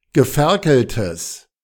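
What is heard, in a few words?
"geferkeltes", German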